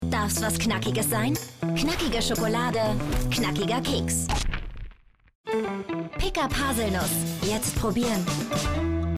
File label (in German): TV-Spot DE